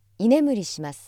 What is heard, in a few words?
female